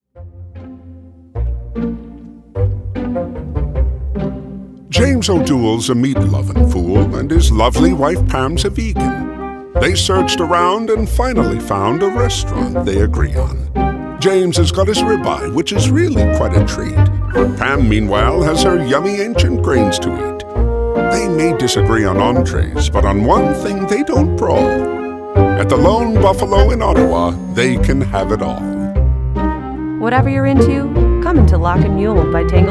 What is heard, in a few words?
radio; TANGLED ROOTS; CARNIVORES VEGANS; lone buffalo